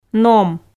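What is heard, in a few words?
"ном", Komi, female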